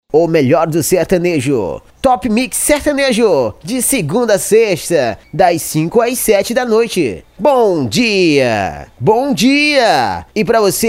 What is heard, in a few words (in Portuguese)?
voz vinhetas offs limpos